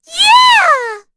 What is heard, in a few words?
Vox Casting, en; kingsraid; voices heroes